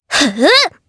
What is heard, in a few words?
Vox Casting jp; Sonia; kingsraid audio